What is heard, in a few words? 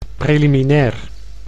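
"preliminair", Dutch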